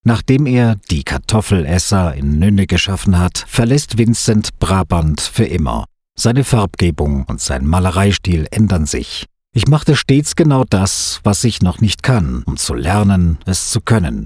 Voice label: Audioguide, german